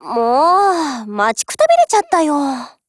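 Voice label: HELLO, Japanese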